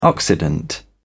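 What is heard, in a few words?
"occident", British English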